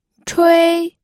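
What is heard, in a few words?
"chui", Mandarin Chinese, first tone; pinyin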